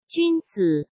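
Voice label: "君子", Mandarin Chinese; chinese-flashcards; hsk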